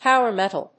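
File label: "power metal", English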